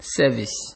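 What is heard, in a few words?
"service", Nigerian Pidgin, male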